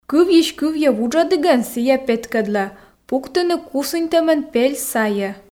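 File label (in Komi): кывйысь кывйӧ вуджӧдігон сійӧ петкӧдлӧ пкпс, Komi, female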